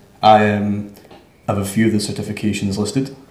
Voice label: interviewee male filler